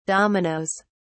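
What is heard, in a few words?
"dominos", British English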